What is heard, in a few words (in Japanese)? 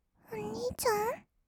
"おにいちゃん？", kawaii voice; ASMR; 二人称; 1200 kawaii voice!!